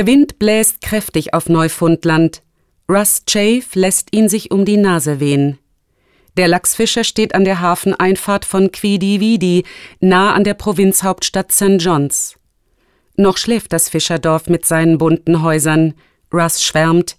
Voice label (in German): Reportage; Neufundland; sprecherin